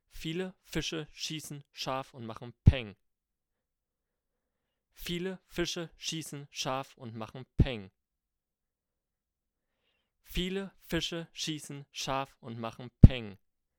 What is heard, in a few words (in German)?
shure pga48 sibilanten und plosive